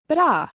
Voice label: "bra", Gaelic